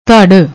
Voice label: "dharr", Punjabi; ਧੜ